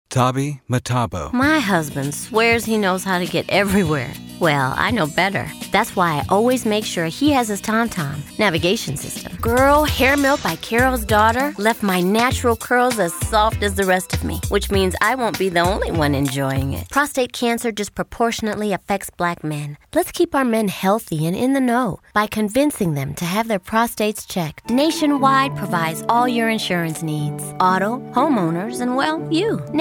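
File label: voice over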